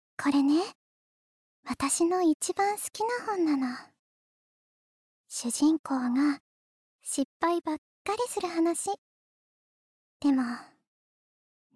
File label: Girl Tender, 病弱な女の子